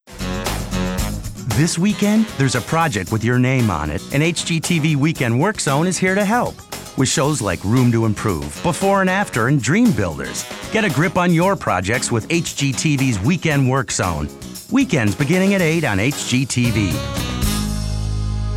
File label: promo